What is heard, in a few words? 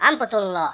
fire, Finnish; Worms Armageddon